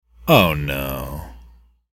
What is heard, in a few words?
oh no ringtone